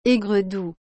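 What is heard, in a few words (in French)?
"aigre doux", French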